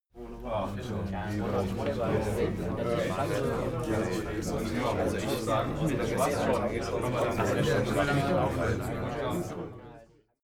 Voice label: talk german neutral short